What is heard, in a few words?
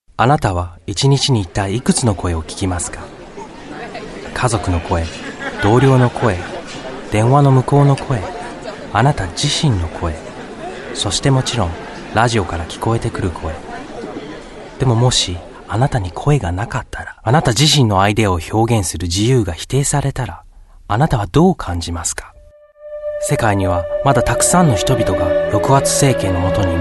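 Japanese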